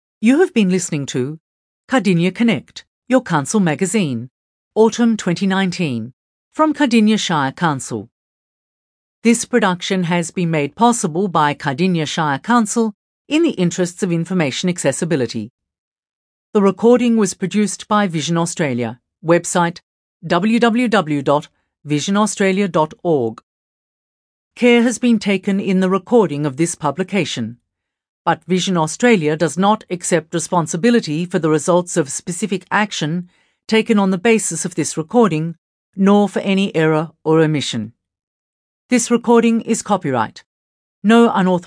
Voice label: closing announcement